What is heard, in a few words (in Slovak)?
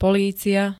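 "polícia", Slovak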